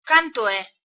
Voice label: how much, galician